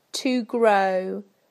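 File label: "to grow", British English; PictureDictionary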